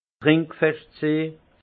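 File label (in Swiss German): "trinkfescht sin", alsace Haut-Rhin; vin; qui tient bien l’alcool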